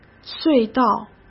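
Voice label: "隧道", Mandarin Chinese; chinese-flashcards; hsk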